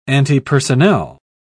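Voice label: "antipersonnel", British English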